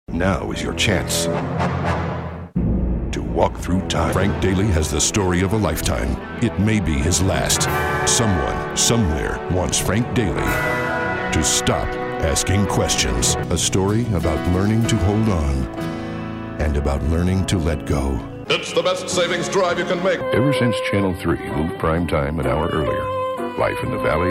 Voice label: commercials